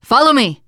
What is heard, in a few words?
follow me, teengirl, voice